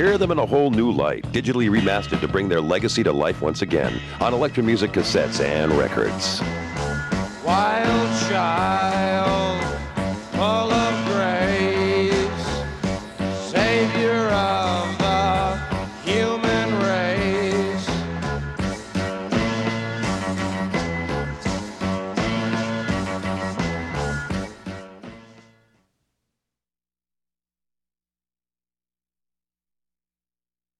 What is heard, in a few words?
Radio Commercials